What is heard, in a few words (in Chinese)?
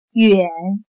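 "远", Chinese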